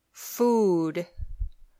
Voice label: "food", English